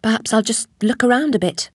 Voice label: chitchat; svencoop; Alice